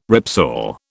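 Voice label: "ripsaw", British English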